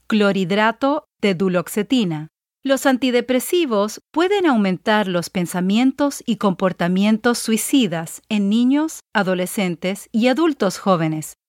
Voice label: Spanish Anti-Depressants